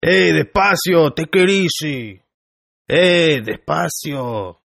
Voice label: Toughguy Easy English, Tough Hispanic Male; Saying "Hey, Take It Easy" In English-Spanish; Talking Sound Effects